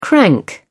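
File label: "crank", English, British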